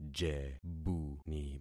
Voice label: "jebuni", concat syllables; Affixing experiment